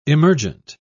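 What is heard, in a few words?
"emergent", British English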